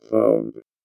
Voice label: VOICEFX ELCTR 140; Techno2